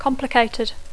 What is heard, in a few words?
"complicated", English